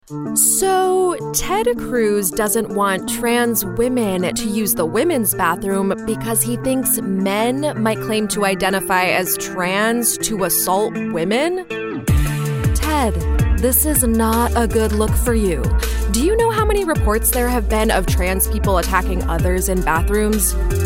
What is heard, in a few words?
Political, voiceover